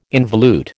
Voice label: "involute", American